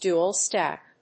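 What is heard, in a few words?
"DUAL STACK", English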